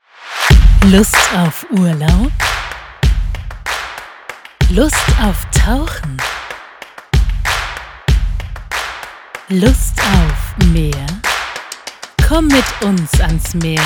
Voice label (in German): Werbung; Labani; Klangperlen